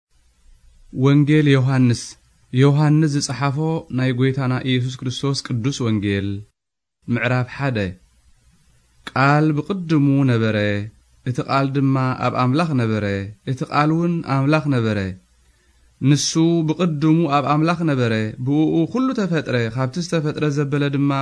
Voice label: tigrinya, new testament audio; tir tigrigna tigrinya audio bible